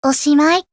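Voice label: WIN Japanese, Voice; GF Alarm; Henrietta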